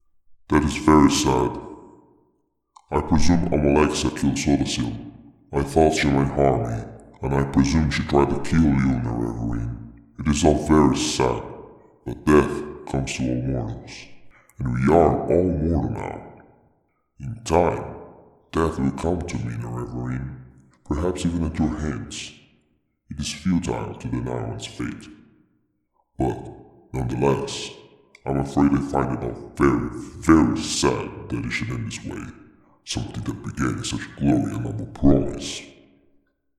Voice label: lowpitch, unique voice auditions; vivec; almalexia; sotha sil; voice acting